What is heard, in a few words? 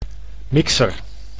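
"mixer", Dutch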